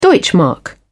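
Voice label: "deutschmark", British English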